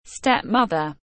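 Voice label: "Step mother", English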